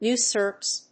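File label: "Usurps", English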